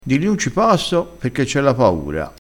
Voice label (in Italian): "la paura", vocabolario dialettale